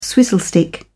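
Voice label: "swizzle stick", British English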